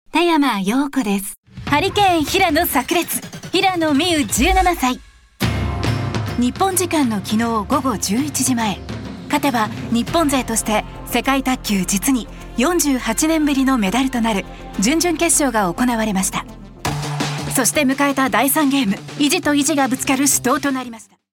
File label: female sports documentary